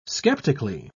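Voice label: "sceptically", British English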